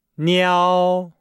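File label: "niao", Chinese; Nihao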